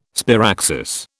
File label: "sparaxis", British